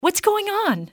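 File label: goingon, english female; XBOX; civ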